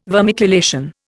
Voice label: "vermiculation", Indian

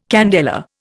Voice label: "candela", Indian

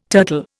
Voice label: "turtle", Indian

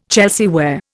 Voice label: "Chelsea ware", Indian

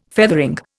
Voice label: "feathering", Indian

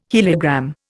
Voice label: "heliogram", Indian

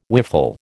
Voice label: "whiffle", American

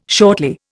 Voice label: "shortly", Indian

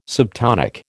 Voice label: "subtonic", American

